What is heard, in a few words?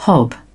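"hive", British English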